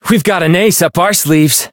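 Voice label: encounter fear; curio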